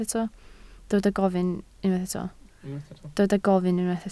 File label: "gofyn", Welsh